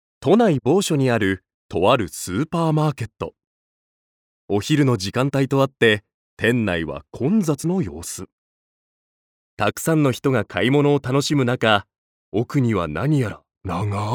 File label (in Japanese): ナレーション